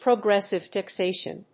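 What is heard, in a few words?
"progressive taxation", English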